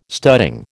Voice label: "studding", American